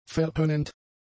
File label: "verhöhnend", German; adjectives